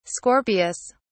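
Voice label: "scorpius", British English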